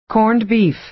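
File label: "corned beef", American English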